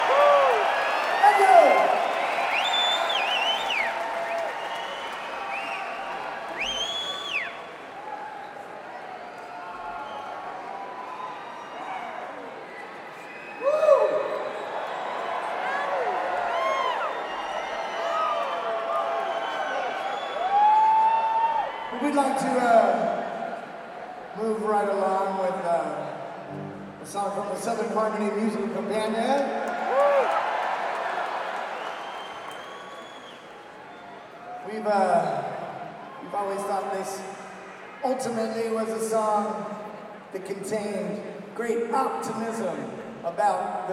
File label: Chatter